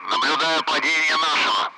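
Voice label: Speech Sound Russian Common Allied Flight Messages loud; member down; DCS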